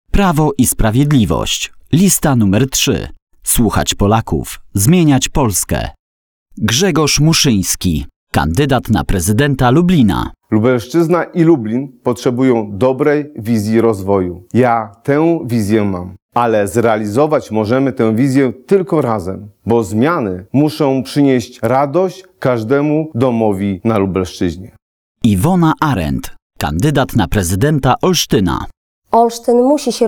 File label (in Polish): Spot radiowy